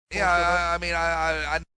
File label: stammer